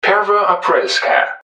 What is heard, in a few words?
"pervoaprelskaya", english man; subway announcers; loopline